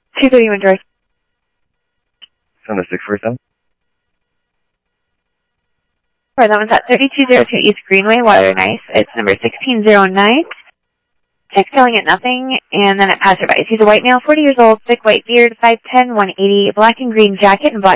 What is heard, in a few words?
Phoenix PD, scanner audio